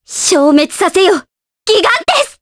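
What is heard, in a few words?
Vox Skill, voices, Japanese; Ophelia; kings raid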